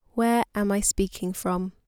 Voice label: Female Speaking